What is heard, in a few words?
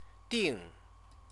"ding", Chinese